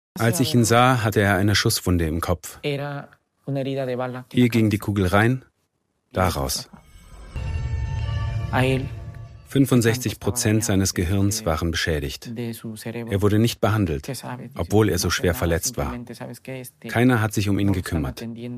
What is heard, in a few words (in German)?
VoiceOver; ARD